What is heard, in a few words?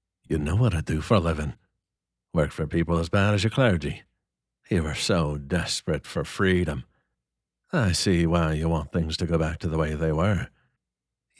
Irish Worker